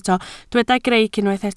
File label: "greic", Welsh